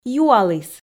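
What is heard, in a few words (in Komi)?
"юаліс", Komi, female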